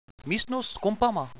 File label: computer room, radio bot